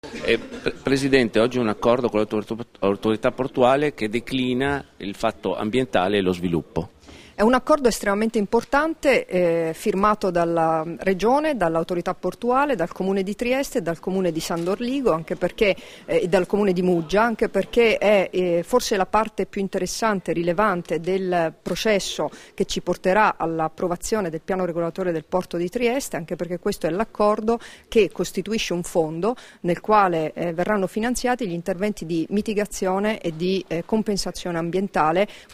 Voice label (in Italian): Intervista; Serracchiani su firma accordo Piano regolatore del Porto